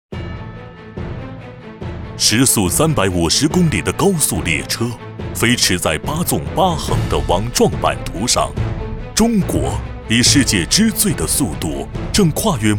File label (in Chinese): 男 宣传片 地产 浑厚大气; 绿地赣州国博城